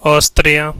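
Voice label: austria, Swedish; gcompris-data; geography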